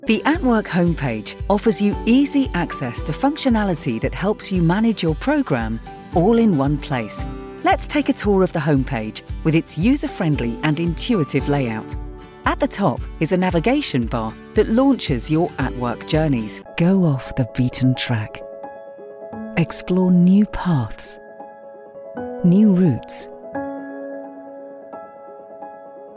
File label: corporate